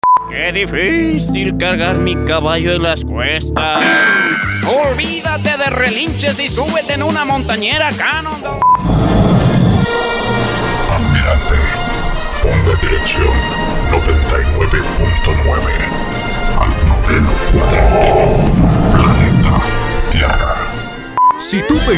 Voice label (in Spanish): Caracterizaciones